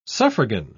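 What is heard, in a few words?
"suffragan", British English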